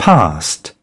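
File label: "past", British English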